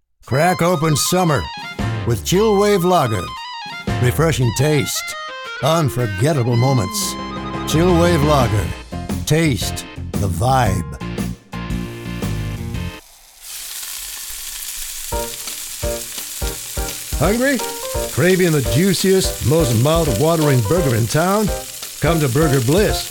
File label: Radio Commercial